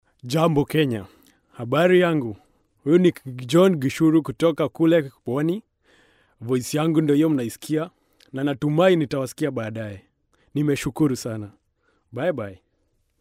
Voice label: Swahili Male

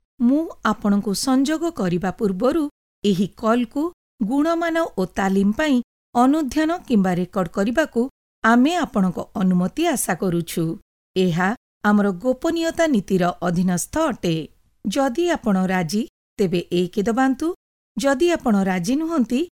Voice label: oriya female

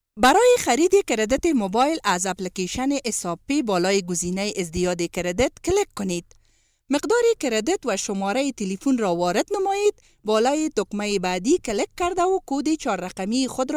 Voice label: dari female

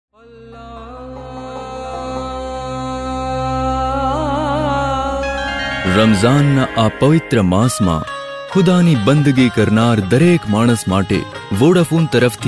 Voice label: Gujarati Male

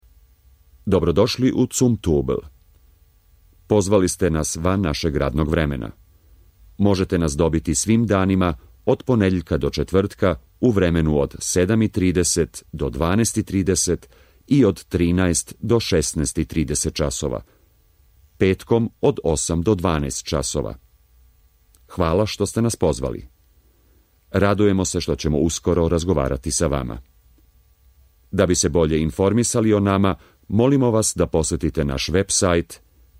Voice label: Serbian Male

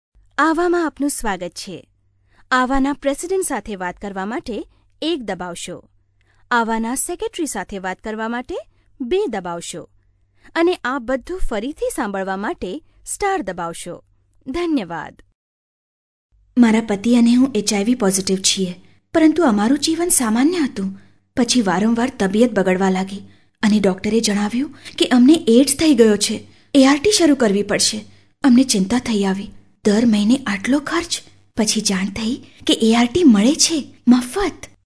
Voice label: Gujarati Female

